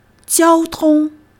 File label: "jiao1 tong1", Chinese